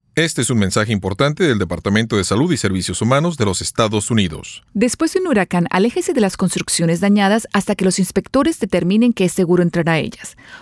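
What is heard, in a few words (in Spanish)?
Spanish PSA; CDC; Buildings After Storm; disasters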